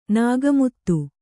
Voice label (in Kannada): "ನಗೆಮಾತು", kannada